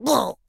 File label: Drunken Friar; Rabbids Multiverse